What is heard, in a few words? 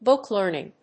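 "book learning", English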